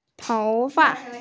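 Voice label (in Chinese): "tou2fa5", Mandarin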